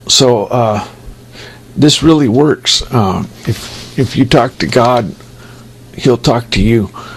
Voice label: chat